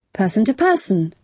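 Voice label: "person-to-person", British English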